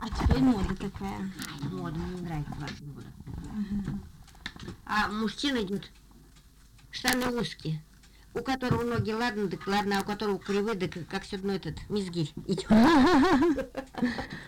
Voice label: middle ob dialect; мизгирь